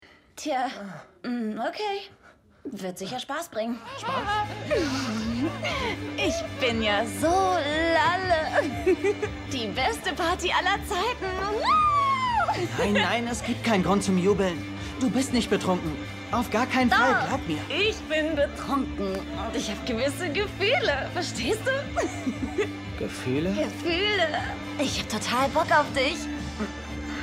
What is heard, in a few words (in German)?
Synchron drunk; Resident Advisors; Rolle Rachel